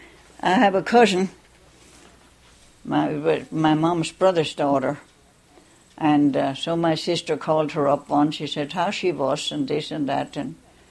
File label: Dutch Engl